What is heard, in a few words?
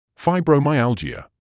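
"fibromyalgia", British English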